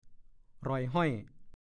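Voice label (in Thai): "หล่อยห่อย" ภาษาโคราช; ละห้อย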